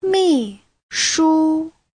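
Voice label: "mìshū", Chinese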